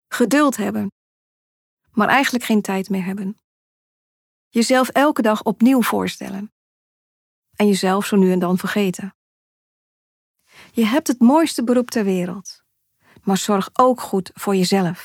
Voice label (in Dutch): zakelijk; IZZ